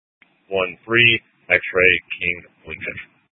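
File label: Police Dispatch, Scanner audio; Police 1 Dispatch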